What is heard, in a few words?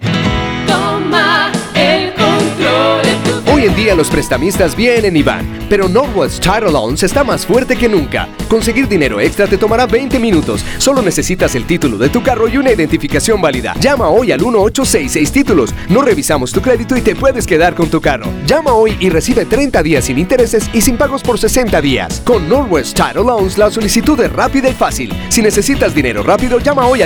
Spanish; NorthWest; Boise